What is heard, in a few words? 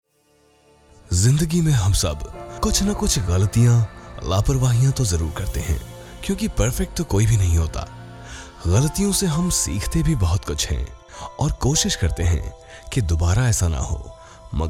Hindi Conversational Story Telling